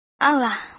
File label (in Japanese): クリック音「あぅあ」; 13radio